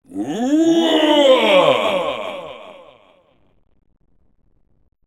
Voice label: Guardian Roar (English); Sonic Riders